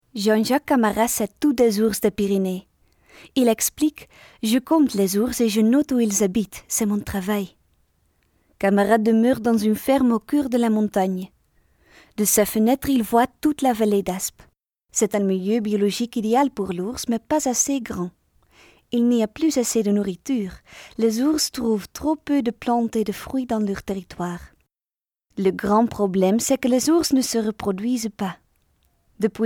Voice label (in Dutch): Frans